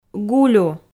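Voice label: "гулю", Komi, female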